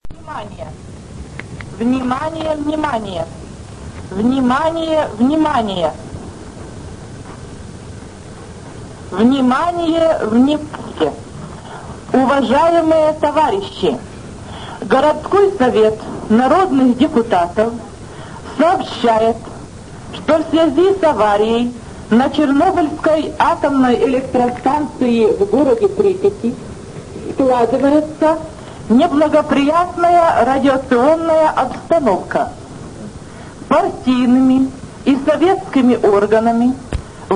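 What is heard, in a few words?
Announcer of Pripyat radio, announcement of the evacuation of Chernobyl and Pripyat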